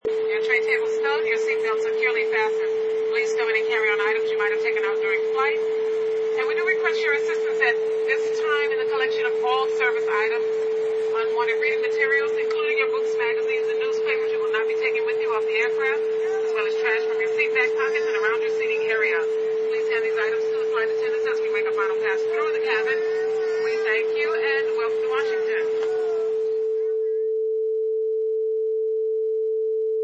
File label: Stewardess Safety Instructions